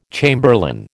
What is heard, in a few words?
"chamberlain", American